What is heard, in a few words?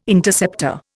"Interceptor", Indian